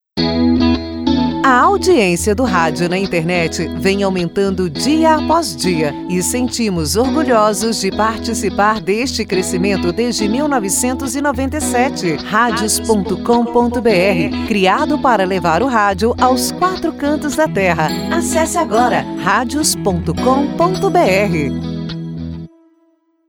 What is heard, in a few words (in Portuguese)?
A audiencia do radio na internet FEM